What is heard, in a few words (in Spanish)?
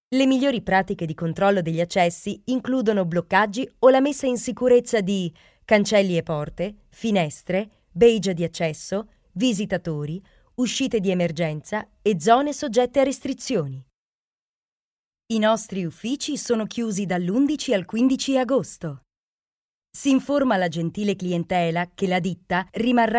Locutora italiana